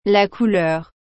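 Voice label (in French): "la couleur", French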